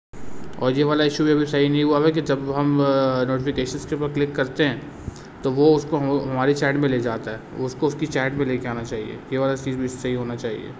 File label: voice message